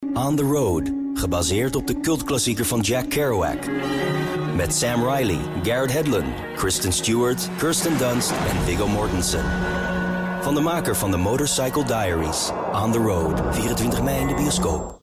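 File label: Dutch, male, narration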